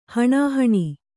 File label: "ಹಾಣಾಹಣಿ", Kannada